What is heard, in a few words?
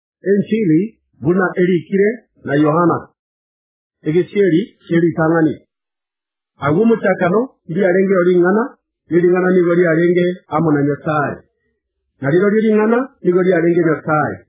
Gusii Kenya, new testament; guz ekegusii gusii kisii audio bible